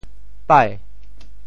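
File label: "pai2", Teochew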